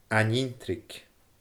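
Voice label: "ain-inntrig", Scottish Gaelic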